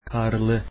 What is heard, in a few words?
"snowy", Turkish